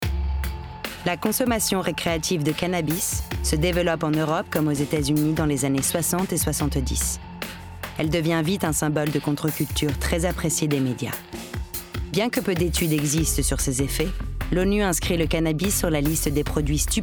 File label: Documentary French